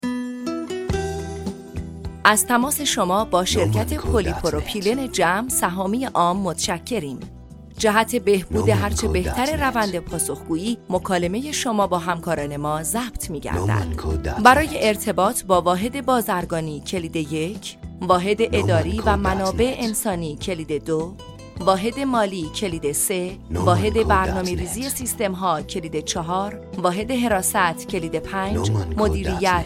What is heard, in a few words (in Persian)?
تلفن گویای حرفه ای; شرکت پلی پروپیلن جم